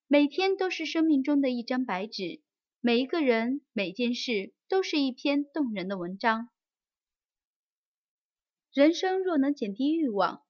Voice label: 短句试音女配

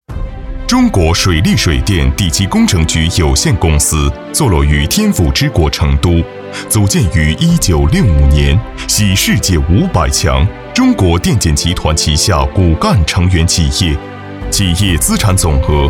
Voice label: 专题大气企业介绍; 第七工程局

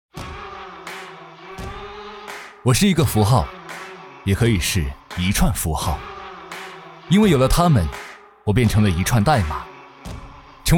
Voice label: 时尚质感旁白; 程序员

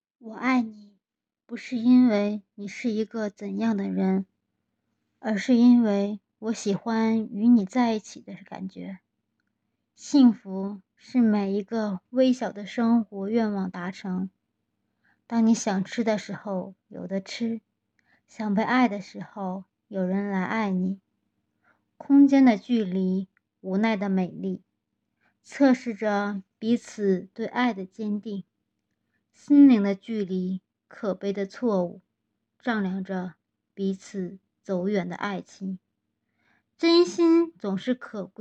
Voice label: 采访录音

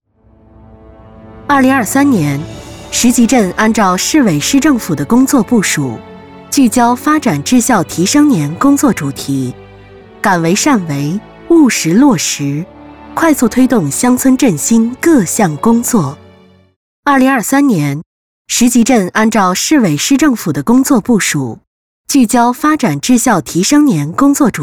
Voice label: 专题大气

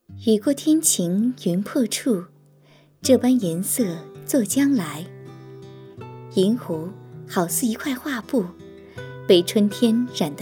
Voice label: 介绍类 短视频; 银湖街道

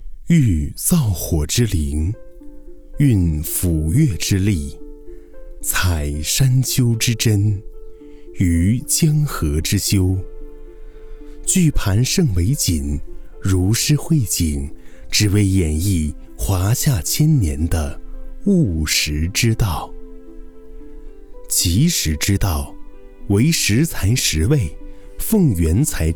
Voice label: 纪录舌尖风格

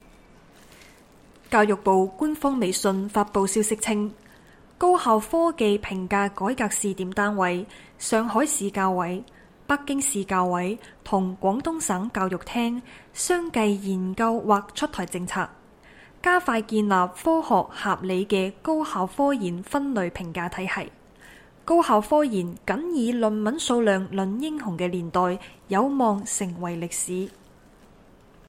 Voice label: 粤语新闻

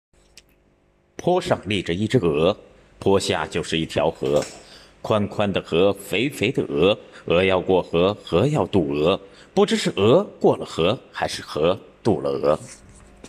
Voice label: 顺口溜; 鹅

